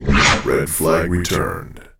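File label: red returned, announcer; ctf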